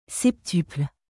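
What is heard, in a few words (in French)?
"septuple", French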